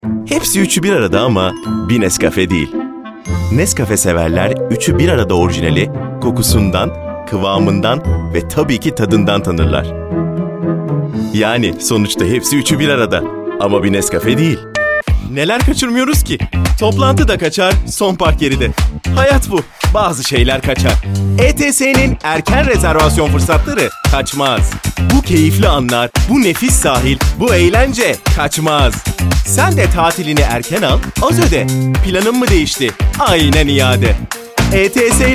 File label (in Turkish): reklam